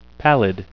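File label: "pallid", English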